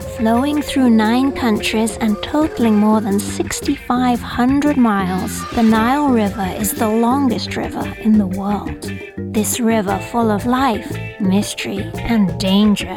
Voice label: British Voice Over Lady History Genre